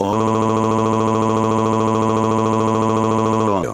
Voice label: ooo loquendo

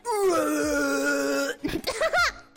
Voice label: deep voice

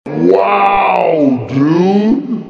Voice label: wow dude slowmo

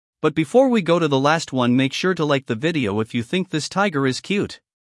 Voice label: luv voice

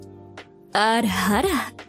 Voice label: voice ara ara

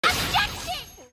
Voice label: objection english; maya fey

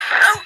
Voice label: hiss ow

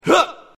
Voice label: male sound; mkii

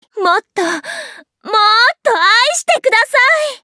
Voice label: jp voice; closers; bai winchester; splendor of darkness

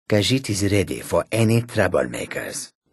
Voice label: khajiit male trouble makers

